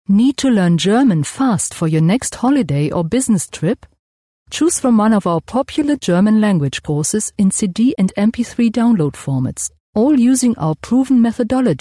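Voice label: German, female, English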